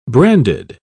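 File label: "brandied", British English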